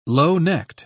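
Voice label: "low-necked", British English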